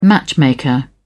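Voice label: "matchmaker", British English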